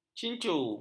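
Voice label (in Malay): "cincu", Malay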